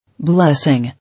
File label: "Blessing", English